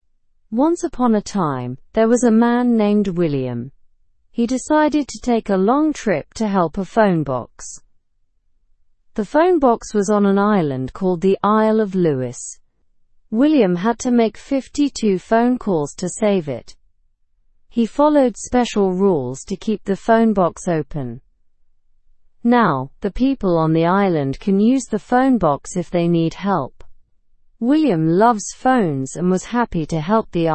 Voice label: english news beginner slow; man saves old phone box